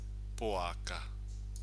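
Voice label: "poaka", Māori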